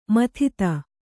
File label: "ಮದದ್", Kannada